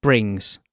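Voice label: "brings", British English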